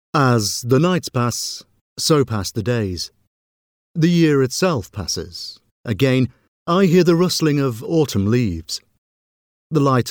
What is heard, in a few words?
voiceover narrative audiobook; audiobooks; taras schevchenko death of the soul